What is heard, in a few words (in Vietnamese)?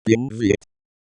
tiếng Việt